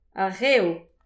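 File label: "ar rev", Breton; petit precis de prononciation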